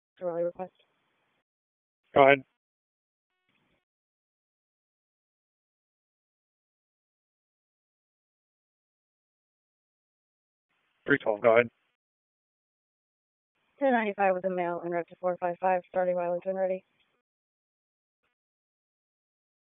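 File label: Police Northeast District Dispatch; Raleigh; p25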